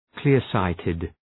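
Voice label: "clear sighted", English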